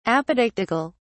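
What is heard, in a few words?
"apodictical", British English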